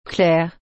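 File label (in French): "claire", French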